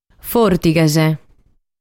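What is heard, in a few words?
"Fòrtighes", Sardinian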